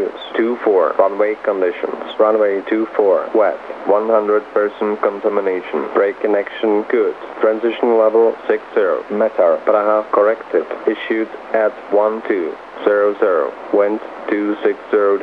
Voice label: LKPR ATIS 122,15MHz